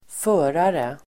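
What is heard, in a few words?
"förare", Swedish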